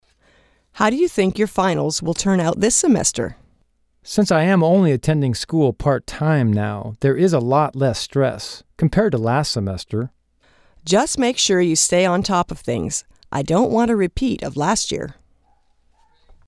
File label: conversation